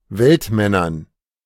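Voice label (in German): "Weltmännern", German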